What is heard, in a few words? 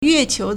"yue4qui2", Chinese